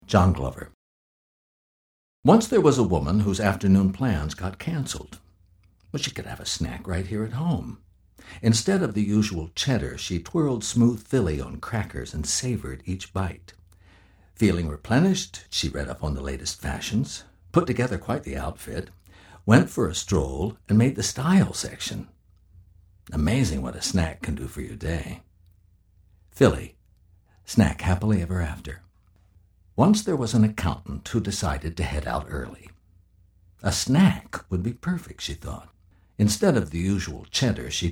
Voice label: commercial; Innovative LA